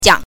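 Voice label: "jiang", Mandarin Chinese pinyin, neutral tone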